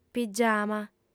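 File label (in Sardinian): "pigiama", female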